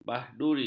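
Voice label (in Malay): "bahaduri", Malay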